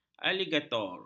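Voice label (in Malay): "aligator", Malay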